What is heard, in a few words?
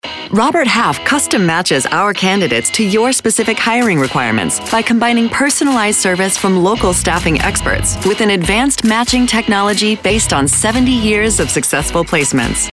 spot; Robert Haff; SMVoices